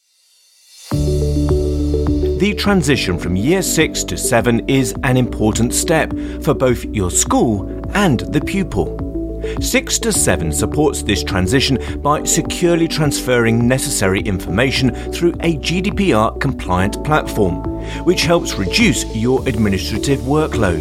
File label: corporate, Engaging Friendly British Voiceover Artist